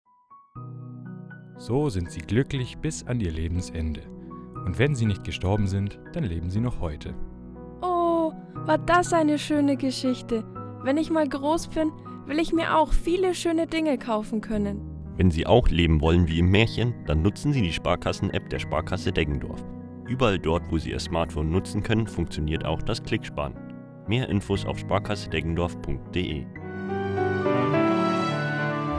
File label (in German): Werbung Sparkasse